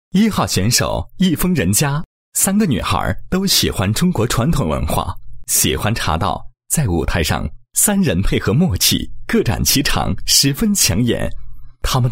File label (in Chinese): 男 解说; 茶仙子10强选手介绍